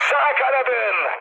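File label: Turkish right wing, mood med, speech; War Thunder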